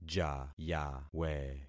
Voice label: "jayawe", concat syllables; Affixing experiment